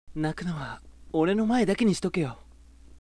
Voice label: sample sweet hi, voice